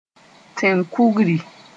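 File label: "tẽn-kugri", Mooré; sacred stone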